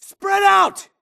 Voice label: grunt grenade response; imc; Titanfall 2